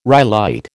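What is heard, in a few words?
"rhyolite", American English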